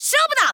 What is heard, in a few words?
Pit voice sample JP